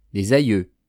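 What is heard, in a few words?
"les aieuls", French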